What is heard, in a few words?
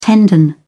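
"tendon", British English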